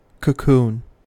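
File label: "cocoon", US English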